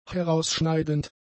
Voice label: "herausschneidend", German; adjectives